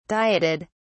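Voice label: "dieted", British English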